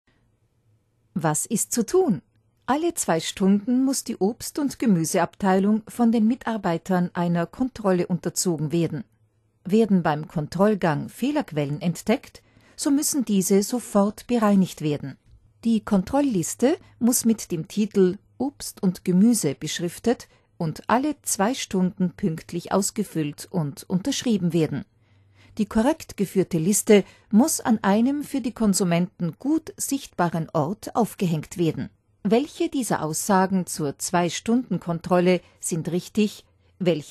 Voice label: e-learning, frauen